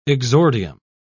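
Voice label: "exordium", British English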